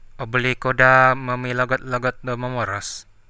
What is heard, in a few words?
slowly, central dusun